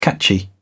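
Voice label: "catchy", British English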